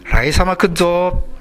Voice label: "raisama", minamiyamagata